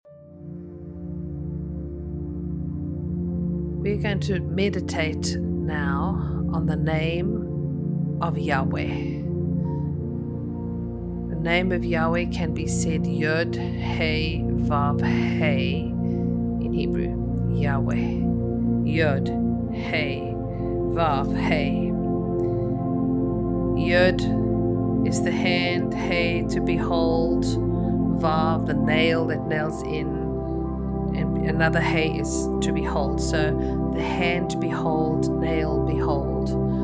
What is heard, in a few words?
Meditation; YHVH